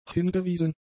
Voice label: "hingewiesen", German; adjectives